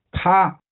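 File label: "啪" Chinese, Pā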